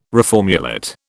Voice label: "reformulate", British